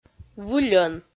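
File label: "выльӧн", Komi, female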